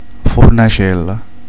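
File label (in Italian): "brace", Sicilian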